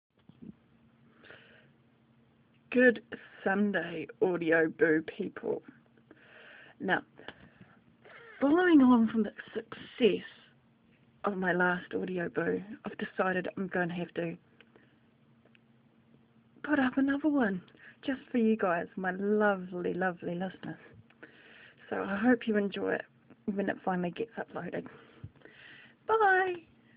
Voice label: an announcement